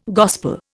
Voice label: "gospel", Indian

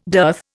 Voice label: "dearth", Indian

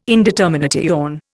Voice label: "indetermination", Indian

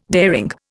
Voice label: "daring", Indian

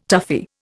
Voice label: "toughie", Indian

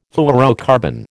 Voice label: "fluorocarbon", American

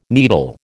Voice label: "needle", American

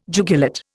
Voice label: "jugulate", Indian

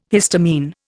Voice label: "histamine", Indian

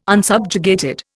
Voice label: "unsubjugated", Indian

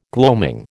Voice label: "gloaming", American

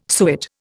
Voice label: "suet", Indian

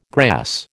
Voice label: "grass", American

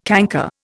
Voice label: "canker", Indian

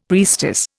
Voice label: "priestess", Indian; Dictionary

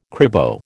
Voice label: "cribo", American

